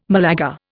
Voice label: "Malaga", Indian